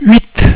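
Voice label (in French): "huit", French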